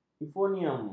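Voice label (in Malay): "eufonium", Malay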